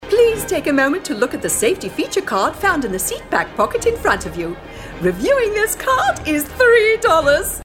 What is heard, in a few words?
Flight attendant